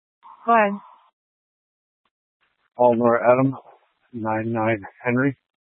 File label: Dispatch, scanner